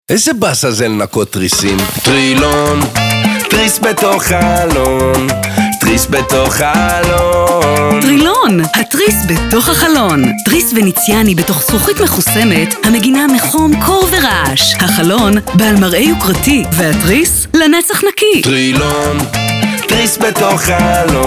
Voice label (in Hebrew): תשדיר